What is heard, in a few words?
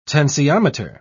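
"tensiometer", British English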